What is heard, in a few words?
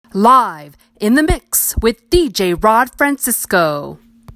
radio drop